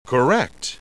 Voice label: correct man